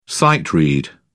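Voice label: "sight-read", British English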